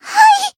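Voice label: attack, Japanese; yuna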